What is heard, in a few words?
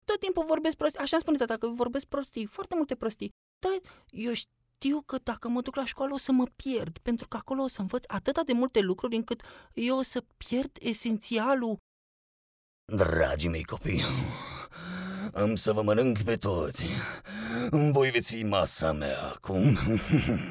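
romanian male character; voice demos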